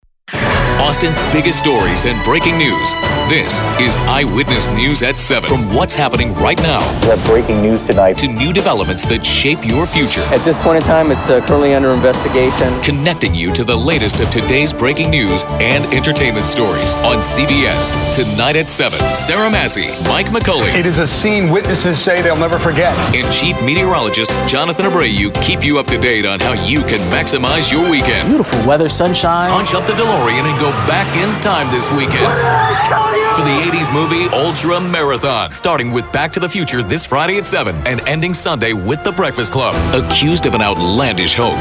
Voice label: TV Affiliate, voice-over; voice-over demos